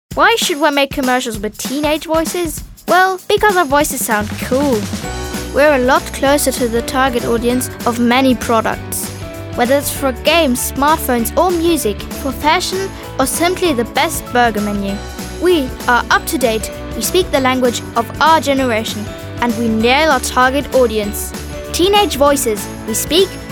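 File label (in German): Werbung English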